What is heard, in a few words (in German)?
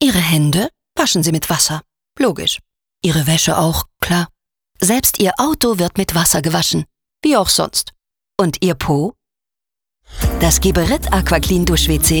OFF, frauen; AquaClean